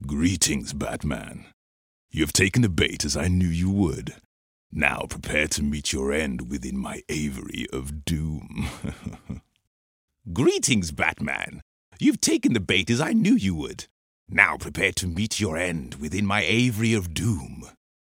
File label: english british animation